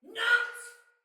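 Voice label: See Player Soldier Female; Barks Battle; Sinecure Soldier Female B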